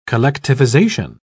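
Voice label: "collectivisation", British English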